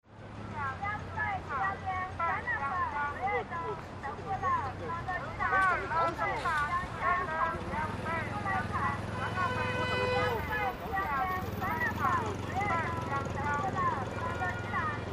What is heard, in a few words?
megaphone loop ads; Mini-megaphone ads, Chong Qin China; LA CHINOISE 08-09